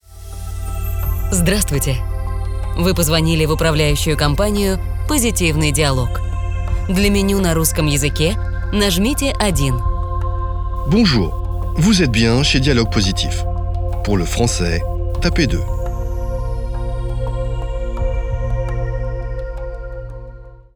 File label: IVR